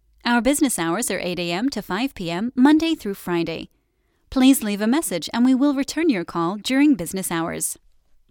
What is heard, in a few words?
Closed Announcement